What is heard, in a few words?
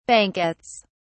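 "banquettes", British English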